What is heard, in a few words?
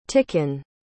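"ticken", English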